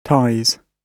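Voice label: "ties", British English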